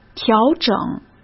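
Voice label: "调整", Mandarin Chinese; hsk; chinese-flashcards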